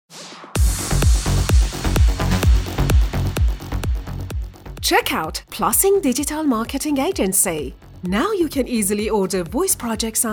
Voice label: narrator